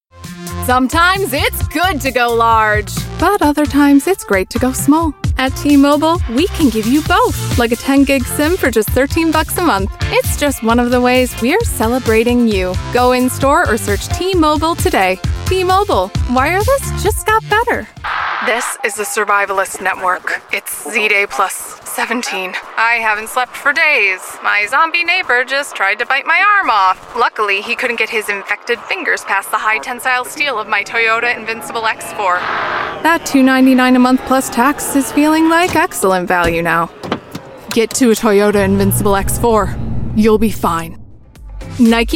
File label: commercial, American Accent; Commercial Reel